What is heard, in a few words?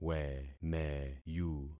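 "wemeyu", concatenated syllables; Affixing experiment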